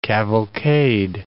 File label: "cavalcade", English